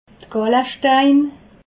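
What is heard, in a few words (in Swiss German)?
"gallestein", Alsatian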